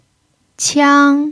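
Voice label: "qiang" first tone, chinese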